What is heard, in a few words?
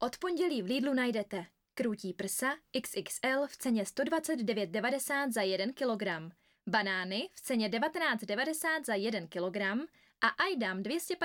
czech